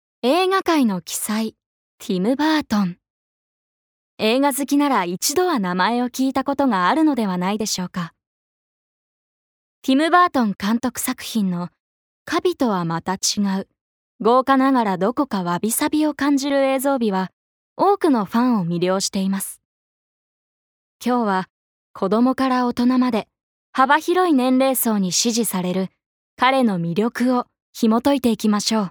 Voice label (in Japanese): ナレーション